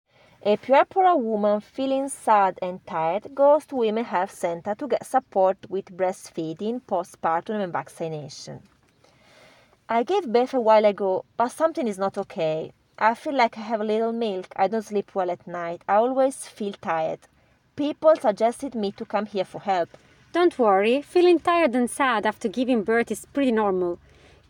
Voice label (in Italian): Inglese